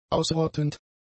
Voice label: "ausrottend", German; adjectives